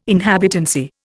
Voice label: "inhabitancy", Indian